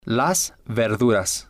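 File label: "las verduras", Spanish, male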